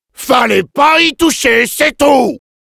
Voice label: Demoman fr